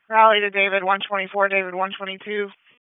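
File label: Police Dispatch, p25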